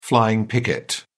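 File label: "flying picket", British English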